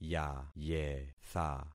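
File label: "yayetha", concatenated syllables; Affixing experiment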